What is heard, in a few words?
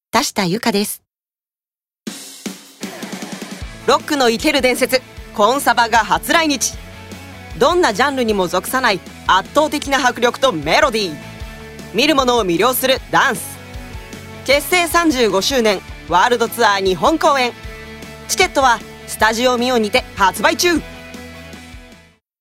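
commercial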